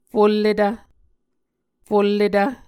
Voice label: "fulletv", muscogee

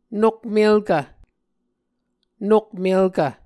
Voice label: "nokmelkv", Muscogee

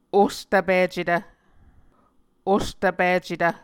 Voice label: "ostvpicetv", Muscogee

